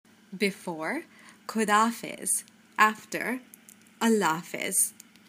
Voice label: goodbye in urdu

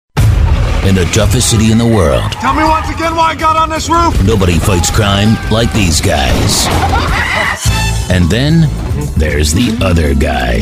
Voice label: game movie trailer voice overs

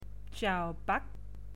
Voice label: greeting someone vietnamese

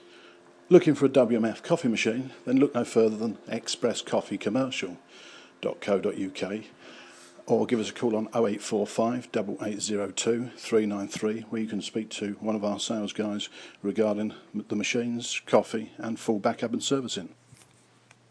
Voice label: coffee commercial; wmf; xpress

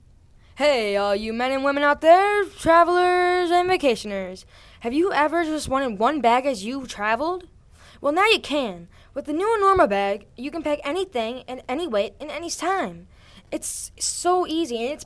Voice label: commercial

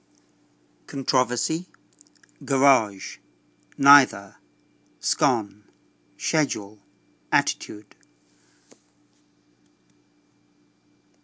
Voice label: beccles suffolk uk male

